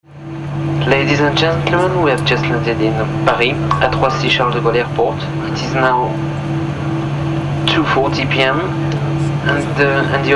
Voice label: airplane english